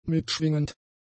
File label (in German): "mitschwingend", German; adjectives